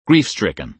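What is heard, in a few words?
"grief-stricken", American English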